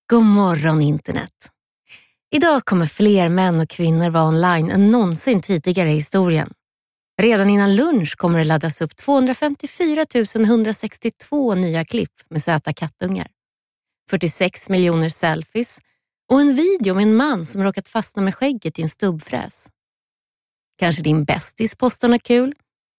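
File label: Swedish female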